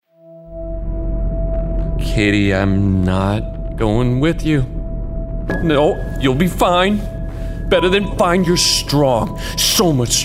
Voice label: Gaming Character Natural Voice NY